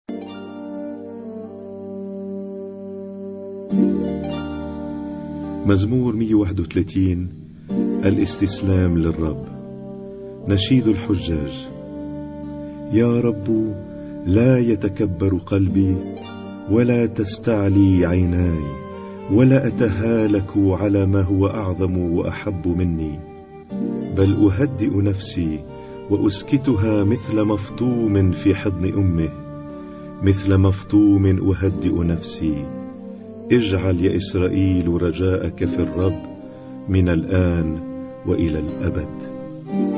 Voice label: Arabic Psalm Zaboor